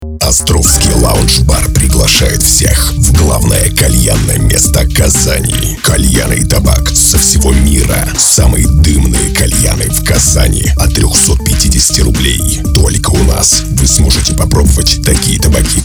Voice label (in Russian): Аудиоролик для кальянной; Диктор №1 (голос Рекорда); jingle-sellscom